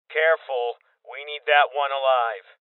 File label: Voices Boss Spotted Male Young